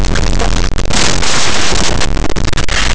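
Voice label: "asco", Spanish; laboratori fonetica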